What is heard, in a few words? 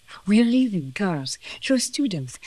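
voice acting, es, female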